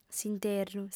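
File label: "s'interru", female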